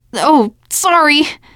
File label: sorry, teen girl; player; survivor; voice